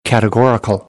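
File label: "categorical", American English; AmericanBritish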